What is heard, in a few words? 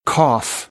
"cough", American; ɑː - ɒ